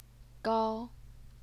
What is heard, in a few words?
"gao1", chinese pinyin